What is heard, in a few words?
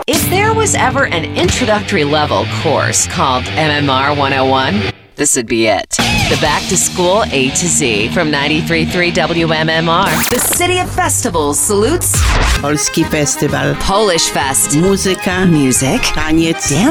radio classic rock, radio imaging